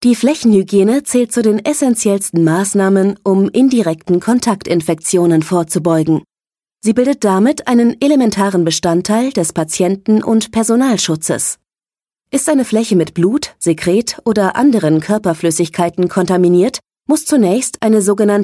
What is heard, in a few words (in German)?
E-Learning neutral sachlich